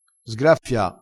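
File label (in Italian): "sgraffia", vocabolario dialettale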